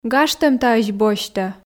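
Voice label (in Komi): "гажтӧм таысь босьтӧ", Komi, female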